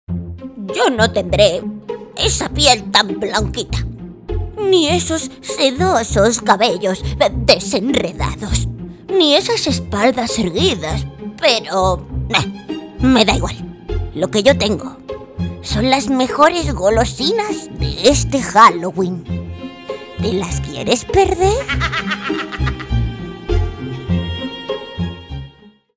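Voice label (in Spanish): Personaje, español castellano; Genérica personaje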